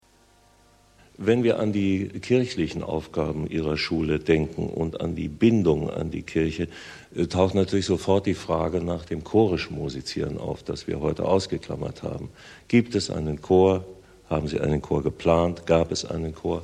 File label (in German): schulfunk